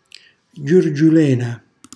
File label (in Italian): "giurgiulena", Calabrese; semi di sesamo; cucina calabrese; Glossario